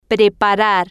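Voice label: "preparar", Spanish, female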